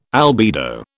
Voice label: "albedo", British

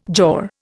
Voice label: "jaw", Indian

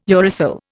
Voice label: "uracil", Indian

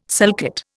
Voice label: "sulcate", Indian

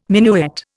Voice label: "minuet", Indian

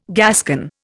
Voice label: "Gascon", Indian

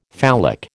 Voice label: "phallic", American

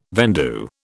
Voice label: "vendue", British

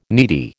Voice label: "needy", American

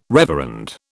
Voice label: "reverend", British

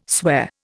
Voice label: "swear", Indian

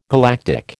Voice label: "galactic", American

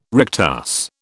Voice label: "rectus", British

